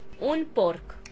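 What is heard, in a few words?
pig description, Romanian; animals; mammals; stamps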